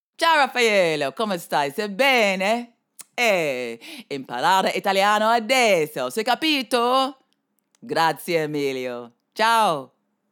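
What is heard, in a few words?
Jamaican, Accented Italian; voice demos; GoLocalise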